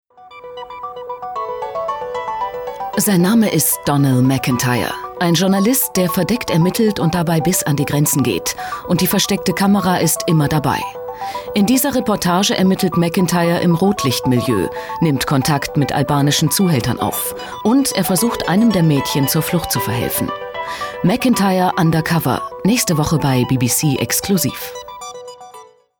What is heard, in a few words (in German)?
Dynamisch